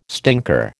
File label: "stinker", American